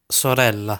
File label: "Sorella", Italian